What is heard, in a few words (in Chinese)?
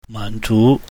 "man zu", Mandarin